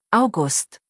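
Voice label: "August", German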